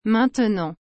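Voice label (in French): "maintenant", French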